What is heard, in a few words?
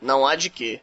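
youre welcome, Brazilian Portuguese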